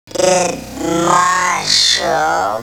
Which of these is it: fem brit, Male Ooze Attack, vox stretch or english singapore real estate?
vox stretch